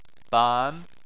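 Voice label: "ban" Chinese, second tone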